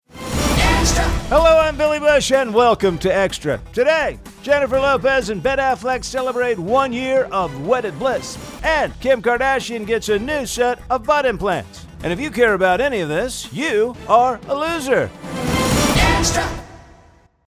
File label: impression; Billy Bush